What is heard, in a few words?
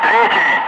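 Russian loud; Ka-50; Wingman; DCS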